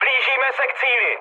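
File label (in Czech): Czech near waypoint, mood medium; speech; War Thunder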